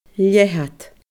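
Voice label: "leathad", Scottish Gaelic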